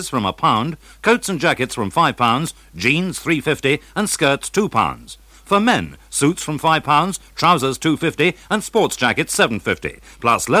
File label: Radio Hallam Advert - Sellout